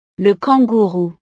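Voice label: "le kangourou", French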